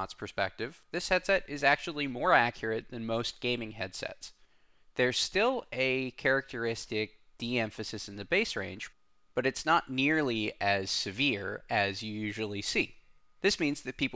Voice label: EPOS H3 Mic sample